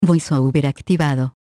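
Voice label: Mexican Spanish VoiceOver On; EFI Audio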